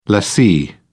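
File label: "lessee", British English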